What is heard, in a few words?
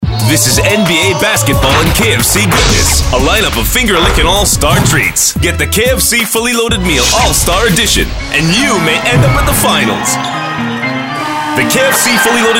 English (Boy Next Door)